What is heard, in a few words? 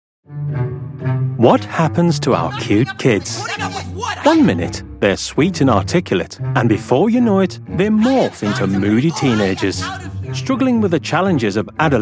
documentary